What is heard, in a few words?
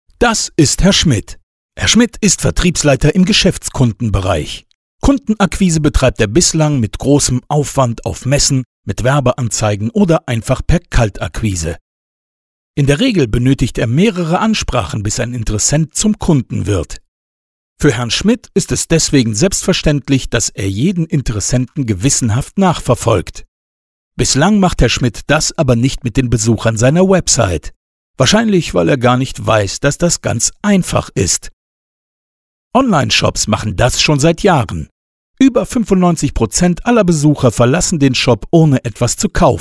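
german male; lektoring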